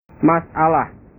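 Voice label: "masalah", Indonesian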